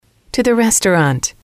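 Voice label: to the restaurant, female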